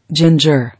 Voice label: "Ginger", English

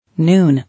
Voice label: "Noon", English

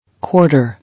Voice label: "Quarter", English

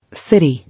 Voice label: "City", English

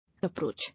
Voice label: "Approach", English

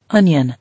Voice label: "Onion", English

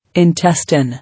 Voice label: "Intestine", English

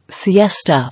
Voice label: "Siesta", English

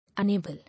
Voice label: "Unable", English

